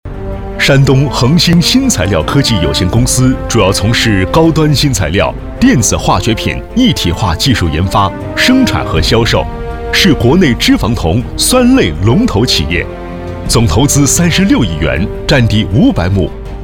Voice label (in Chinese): 男 大气风格 宣传片